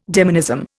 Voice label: "demonism", Indian